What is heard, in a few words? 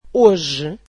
portuguese today